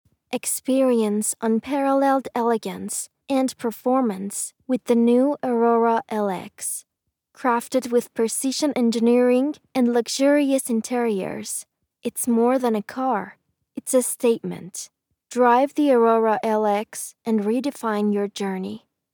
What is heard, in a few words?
luxury automobile sample, luxury automobile ad